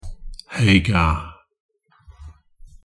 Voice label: pronunciation en "Hagar"